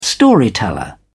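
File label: "storyteller", British English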